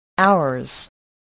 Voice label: "ours", English voice